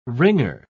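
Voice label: "ringer", British English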